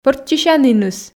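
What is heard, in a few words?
"пӧрччысяніныс" Komi, female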